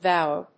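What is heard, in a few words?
"vower", English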